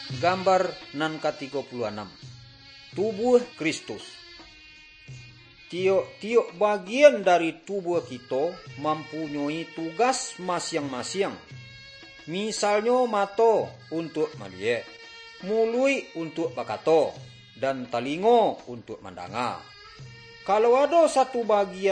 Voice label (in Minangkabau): good news minangkabau